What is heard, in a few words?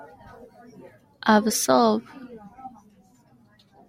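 "absorb", Japanese speaker of English, female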